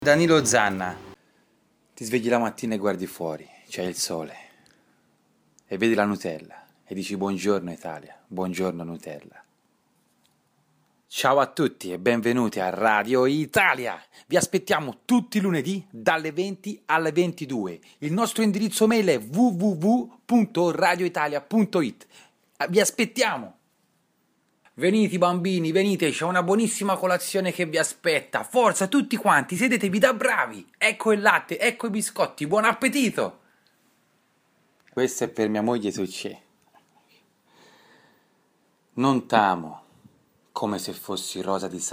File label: italian male voices